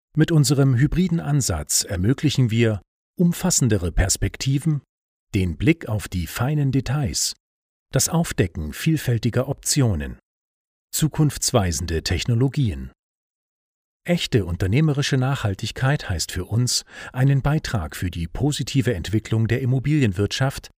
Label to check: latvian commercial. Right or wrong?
Wrong